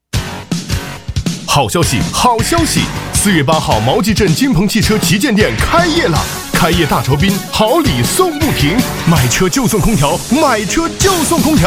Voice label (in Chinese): 男 促销广告 激情大气 汽车; 金彭汽车